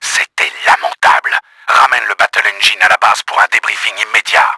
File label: ANGRY, french; KRAMER; Battle Engine Aquila